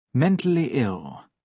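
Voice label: "mentally ill", British English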